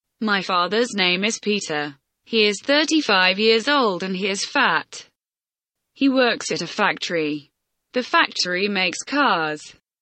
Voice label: simple present tense reading